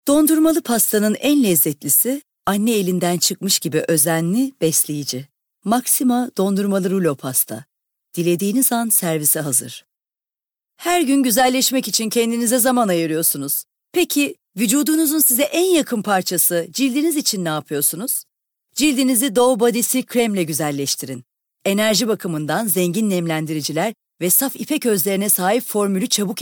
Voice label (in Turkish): REKLAM